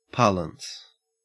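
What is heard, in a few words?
"parlance", Australian English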